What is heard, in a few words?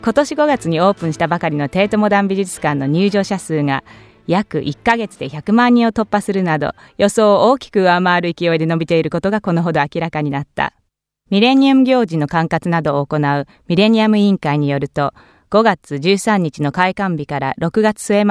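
Japanese male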